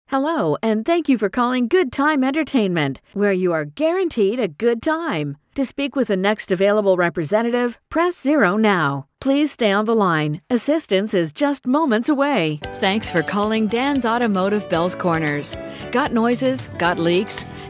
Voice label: IVR Phone On Hold

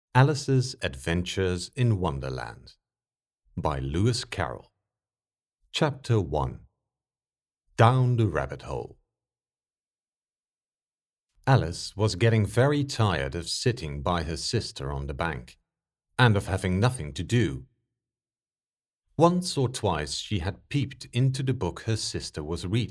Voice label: UK English VO sample narration; Alice's Adventures in Wonderland

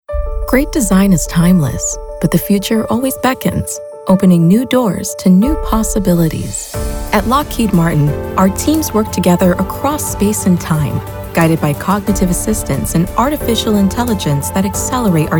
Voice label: corporate narration

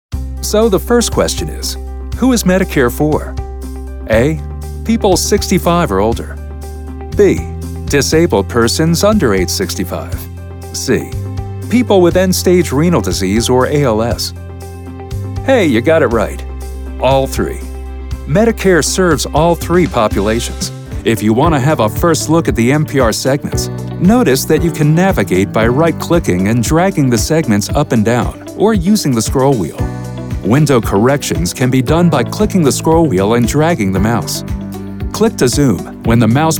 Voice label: Elearning Training

